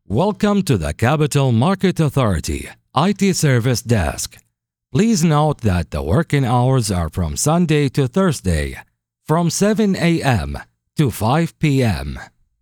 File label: english ivr